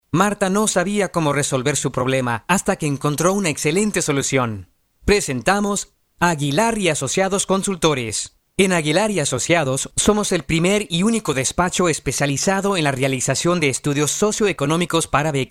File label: male Spanish Mex ad